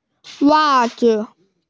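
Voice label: "wa4zi5", Mandarin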